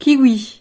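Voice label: "kiwi", French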